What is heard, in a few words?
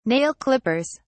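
"nail clippers", British English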